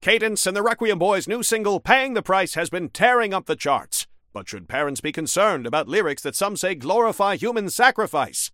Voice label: Newscaster headline